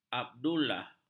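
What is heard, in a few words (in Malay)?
"abdullah", Malay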